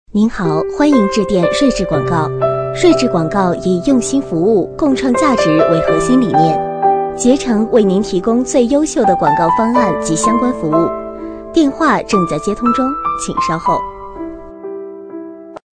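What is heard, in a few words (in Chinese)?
女彩铃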